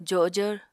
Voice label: "jojvr", Apatani